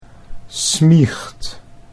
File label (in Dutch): "smiecht", Dutch